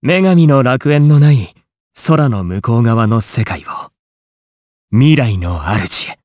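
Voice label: Vox Trs Japanese; Siegfried; kings raid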